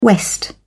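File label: "west", British English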